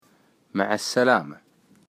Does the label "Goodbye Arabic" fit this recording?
Yes